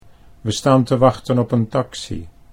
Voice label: "we staan te wachten op een taxi", Dutch